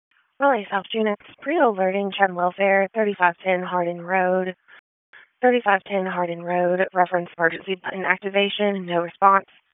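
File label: Police Southwest District Dispatch, p25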